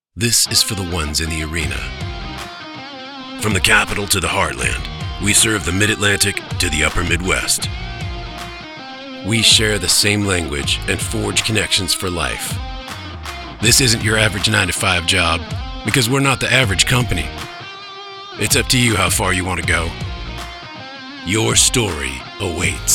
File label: Commercial Energetic